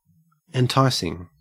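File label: "enticing", Australian English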